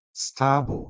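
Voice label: "sth2bho", Proto-Indo-European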